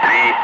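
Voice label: "5", spoken; Ka-50; Wingman; Digits; DCS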